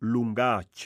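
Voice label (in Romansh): "lungatg", Romansh Sursilvan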